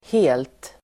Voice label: "helt", Swedish